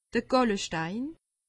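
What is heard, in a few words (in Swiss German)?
"gallestein", Alsatian; medecine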